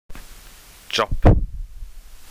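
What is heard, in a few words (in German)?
"Job", German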